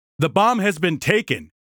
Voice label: taken, announcer bomb offense; warfork cc0

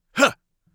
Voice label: light attack, Mage Voice; Mage Voice Pack

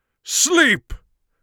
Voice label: sleep, Mage Voice; Mage Voice Pack

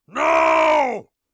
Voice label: "nooooo", orc voice; Orc Voice Pack

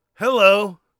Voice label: hello, Warrior Voice; Warrior Voice Pack

